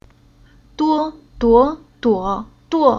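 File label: "Duo", Chinese syllable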